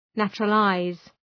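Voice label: "naturalize", English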